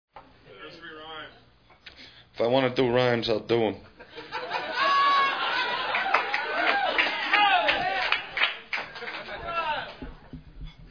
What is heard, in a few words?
Laughter Vs Comedy